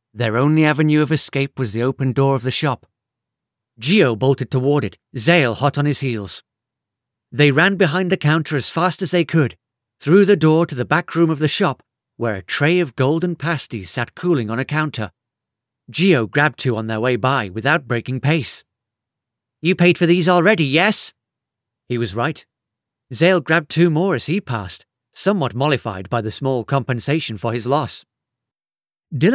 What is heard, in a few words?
Narration Voice